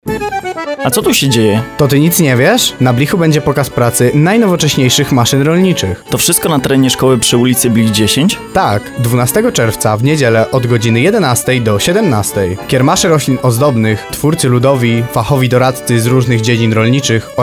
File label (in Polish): spot reklamowy